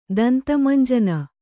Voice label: "ದಂತಮಂಜನ", Kannada

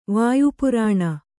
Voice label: "ವಾಯುಪುರಾಣ", Kannada

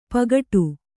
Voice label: "ಪೋಗೊಡು", kannada

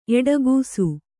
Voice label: "ಎಡಗೂಸು", Kannada

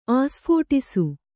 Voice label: "ಆಸ್ಫೋಟಿಸು", Kannada

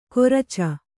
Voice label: "ಕೊರಚ", Kannada

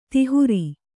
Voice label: "ದಾಹೀರೆ", Kannada

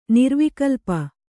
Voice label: "ನಿರ್ವಿಕಲ್ಪ", Kannada

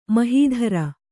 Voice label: "ಮಹೀಧ್ರ", Kannada

